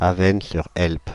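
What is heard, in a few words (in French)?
"Avesnes-sur-Helpe", French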